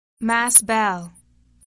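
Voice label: "mass bell", English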